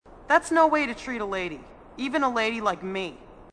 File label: female marine, sassy